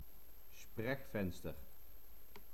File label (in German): "sprechfenster", german